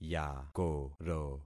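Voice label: "yakoro", concatenated syllables; Affixing experiment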